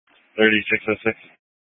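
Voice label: Police 1 Dispatch, Scanner audio recording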